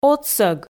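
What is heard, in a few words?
"отсӧг", Komi, female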